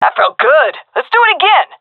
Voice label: round won, fem; vo; agents; Source Model Packs; Counter Strike Model Pack; CS2